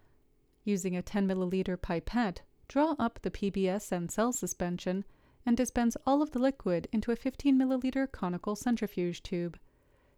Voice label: Voiceover